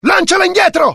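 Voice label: fear grenade, IT; dialogue; mohaa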